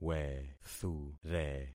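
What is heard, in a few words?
"wethure", concatenated syllables; Affixing experiment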